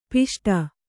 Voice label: "ಪುಷ್ಟ", Kannada

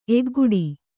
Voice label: "ಎಕ್ಕಾಗಾಡಿ", Kannada

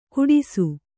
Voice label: "ಕುಡಿಸು", Kannada

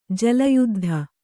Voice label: "ಜಲಯುದ್ಧ", Kannada; jala yuddha; noun

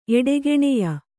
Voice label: "ಎಡೆಗೆಣೆಯ", Kannada

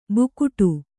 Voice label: "ಬುಗುಟು", Kannada

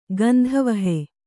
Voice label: "ಗಂಧವಹೆ", Kannada

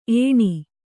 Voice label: "ಏಣ್", Kannada

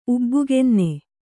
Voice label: "ಉಬ್ಬುಗೆನ್ನೆ", Kannada

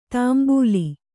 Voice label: "ತಾಂಬೂಲಿ", Kannada

